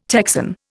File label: "Texan", Indian